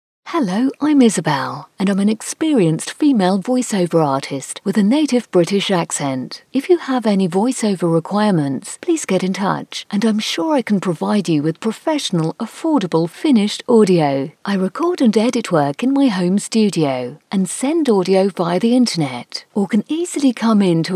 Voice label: dry studio recording